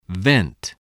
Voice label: "vent", spelling